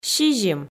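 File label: "сизим", Komi, female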